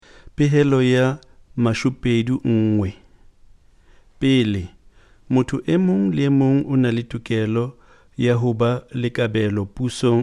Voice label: Sesotho

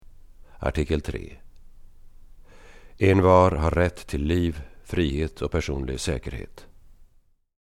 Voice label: Swedish

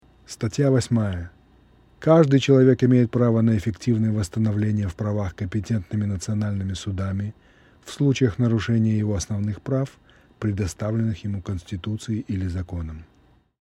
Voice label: Russian

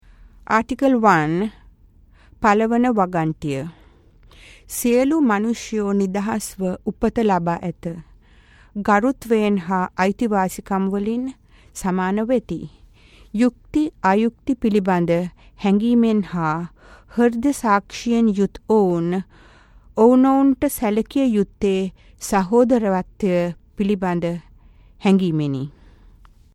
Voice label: Sinhalese

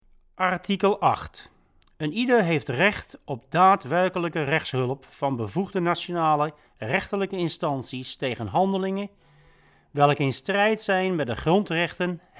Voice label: DUTCH

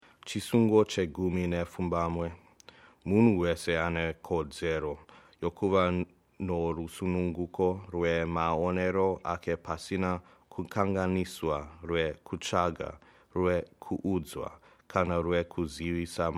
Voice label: Shona

